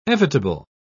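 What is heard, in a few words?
"evitable", British English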